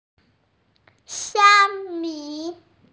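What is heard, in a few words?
"xia1mi5" Mandarin Chinese, female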